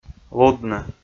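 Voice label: "лудны", Komi, male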